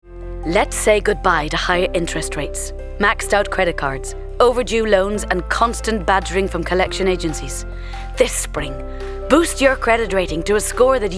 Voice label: Corporate Commercial; Credit Solutions